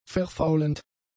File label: "verfaulend", German; adjectives